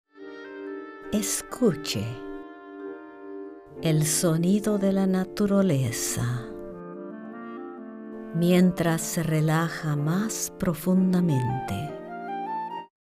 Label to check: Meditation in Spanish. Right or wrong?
Right